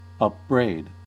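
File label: "upbraid", American English; pronunciations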